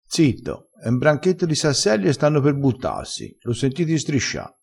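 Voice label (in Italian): "striscia", vocabolario dialettale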